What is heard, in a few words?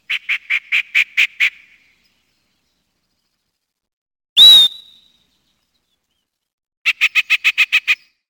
chat